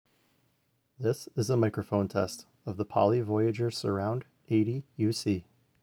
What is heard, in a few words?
Poly Voyager Mic